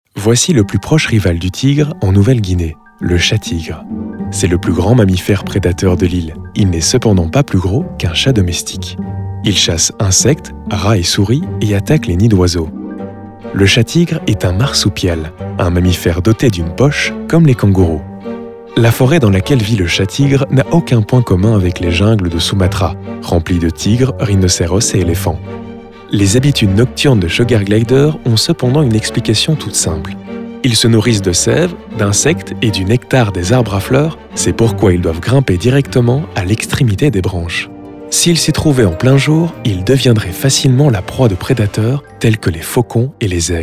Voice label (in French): Narration documentaire ton doux leger sourire observateur